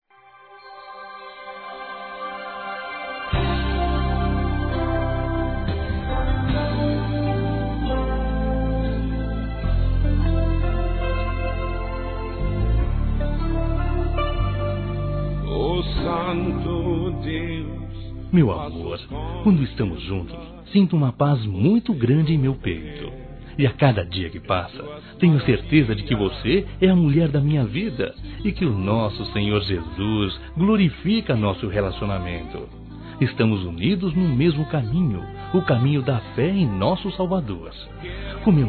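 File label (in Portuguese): EVANGELICO, Masculino; Telemensagens; Dia Dos namorados; Telemensagens 2017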